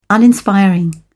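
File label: "uninspiring", British English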